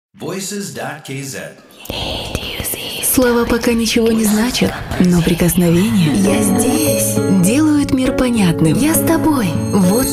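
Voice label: Russian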